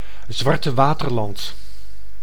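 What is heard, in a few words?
"Zwartewaterland", Dutch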